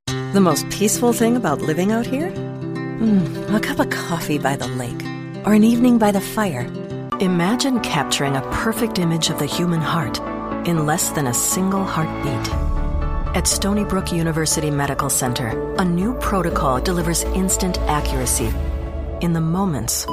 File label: Commercial